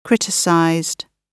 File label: "criticized", gb